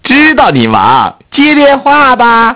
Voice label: Man Voice; Innostream INNO 55